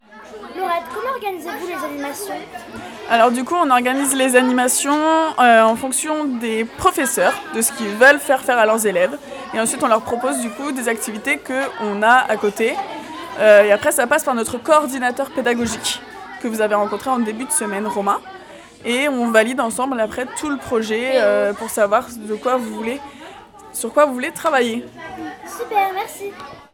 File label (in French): interview de